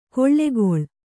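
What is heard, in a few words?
"jharati" Kannada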